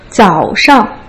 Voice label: "zao3 shang", Putonghua

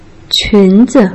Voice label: "qun2 zi", Putonghua

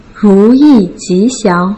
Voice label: "ru yi ji xiang", Putonghua

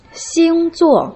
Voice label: "xing zuo", Putonghua

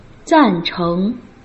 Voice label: "zan4 cheng2", Putonghua

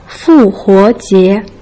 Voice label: "fu huo jie", Putonghua

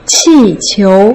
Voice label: "qi4 qiu2", Putonghua

